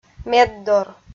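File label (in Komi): "меддор", Komi, female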